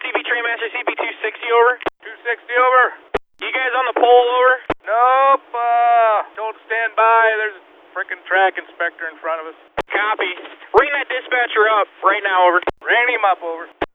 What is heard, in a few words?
Angry Trainmaster